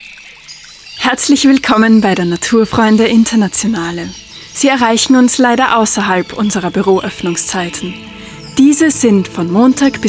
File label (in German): Anrufbeantworter